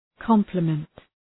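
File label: "complement", English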